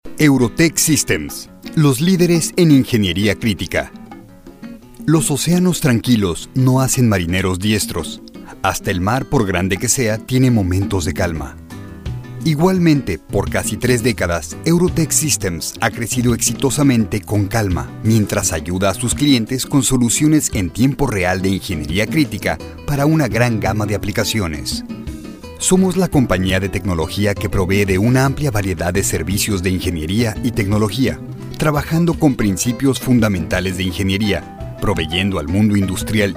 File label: Voiceover Spanish With Bg